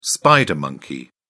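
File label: "spider monkey", British English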